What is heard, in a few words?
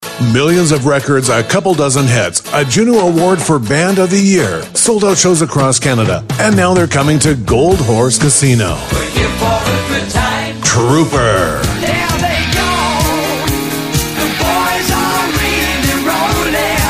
Concert Promo